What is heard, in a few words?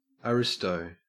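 "aristo", Australian English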